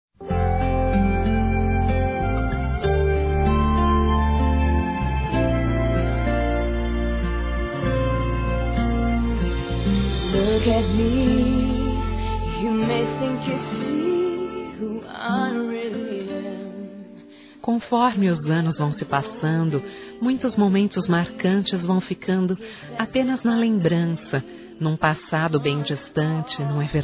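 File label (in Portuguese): Nova lua de mel, feminino; Telemensagens; Românticas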